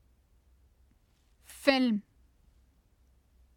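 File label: "film", Urdu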